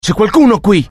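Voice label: curious, Italian; mohaa; dialogue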